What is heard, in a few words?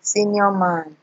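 "senior man", Nigerian Pidgin, female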